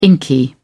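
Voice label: "inky", British English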